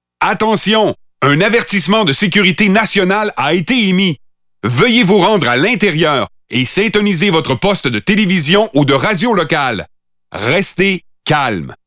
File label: Pathfinder French Standard Canadian